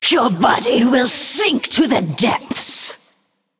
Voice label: Female Naga